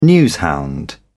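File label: "newshound", British English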